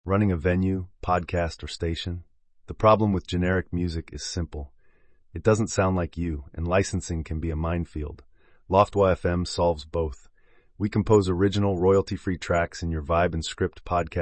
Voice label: Longer spoken sample showing pacing and clarity over a minute; 60s read; Straight spoken demo; OpenAI voices